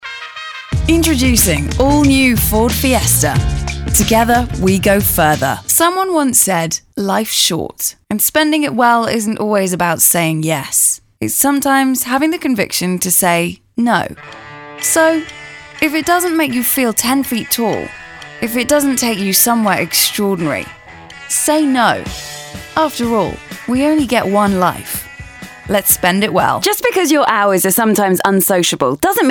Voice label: voiceover